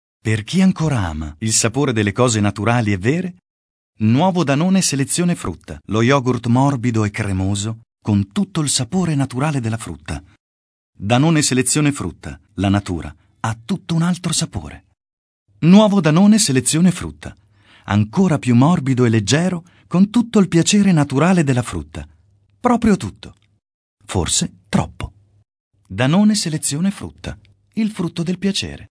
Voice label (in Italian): solo voce; danone